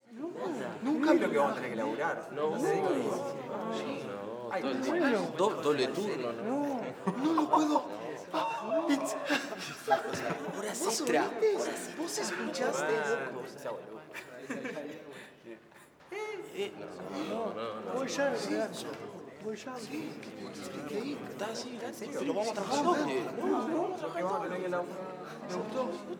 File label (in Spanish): GENTE CONVERSANDO susurros exclamaciones varias INTERIOR